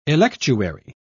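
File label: "electuary", British English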